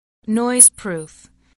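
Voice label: "noiseproof", English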